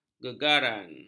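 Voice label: "gegaran", Malay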